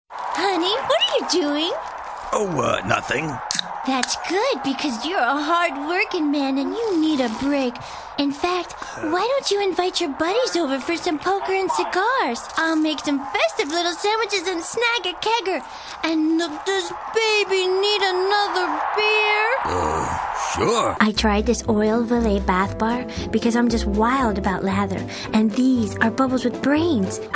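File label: Commercial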